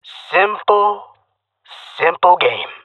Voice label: round won, jungle male; agents